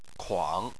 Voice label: "kuang3", Chinese